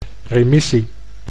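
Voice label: "remissie", Dutch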